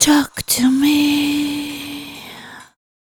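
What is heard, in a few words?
vocal female, Dance Vocals, spoken words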